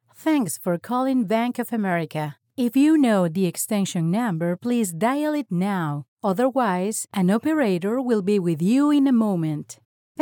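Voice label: Spanish Latin America female English; spanish americas voice over talent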